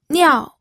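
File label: "niao", fourth tone, pinyin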